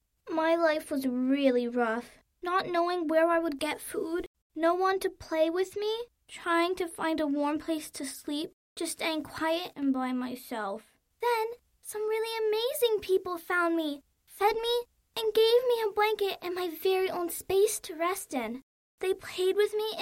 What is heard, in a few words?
kids commercial; Age Range: 14-18. Lovable and relatable, compassionate and dramatic, sassy and silly.